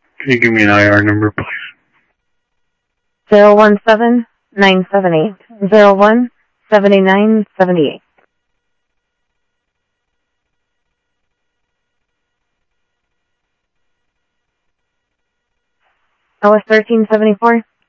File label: scanner audio trunk